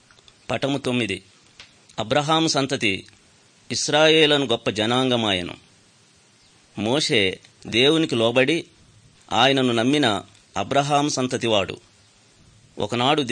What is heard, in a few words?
good news telugu